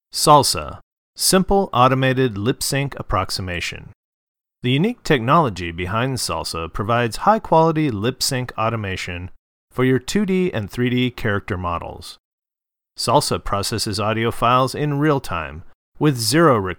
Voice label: Promo male; Crazy Minnow Studio